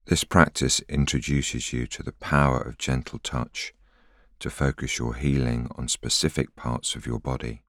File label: voiceover